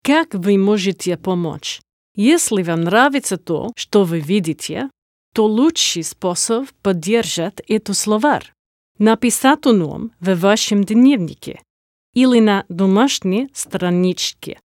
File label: russian